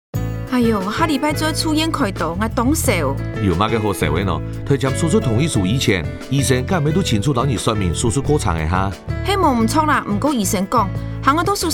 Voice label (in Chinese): 手術標示-客語版